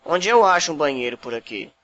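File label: toilet, Brazilian Portuguese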